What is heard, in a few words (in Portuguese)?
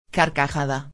"carcajada", espanhol; palavra do dia